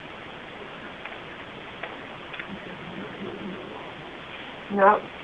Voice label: "Mumble" sound; EVP, or electronic voice phenomena, are said to be the disembodied voices from spirits